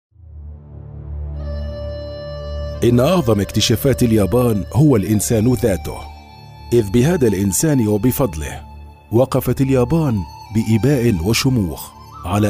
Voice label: arabic male narration